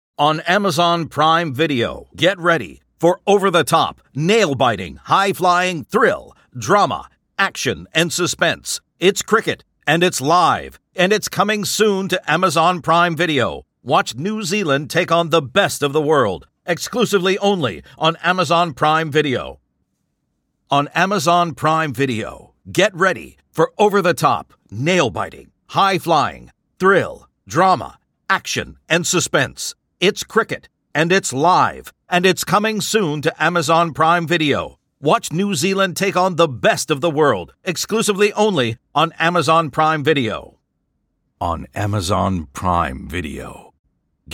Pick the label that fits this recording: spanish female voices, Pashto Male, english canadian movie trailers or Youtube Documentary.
english canadian movie trailers